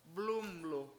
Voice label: "blUUmlu", Washo; with broom